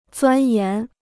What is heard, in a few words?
"钻研", Chinese